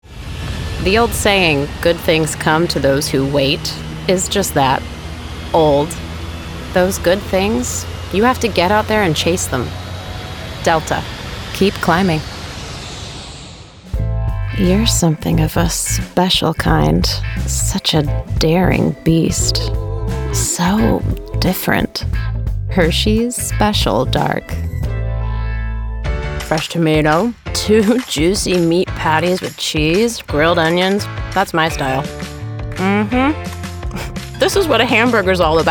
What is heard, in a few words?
commercial